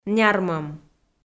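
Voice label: "нярмӧм", Komi, female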